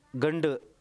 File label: male